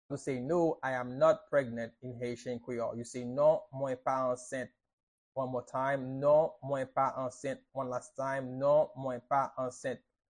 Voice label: Non mwen pa ansent, Haitian Creole pronunciation by a native Haitian; No I am not pregnant in Haitian Creole